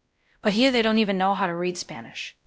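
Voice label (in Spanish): Voice Acting, es, female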